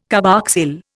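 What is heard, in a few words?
"carboxyl", Indian English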